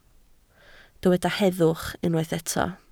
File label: "heddwch", Welsh